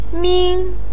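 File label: "min" first tone, Mandarin Chinese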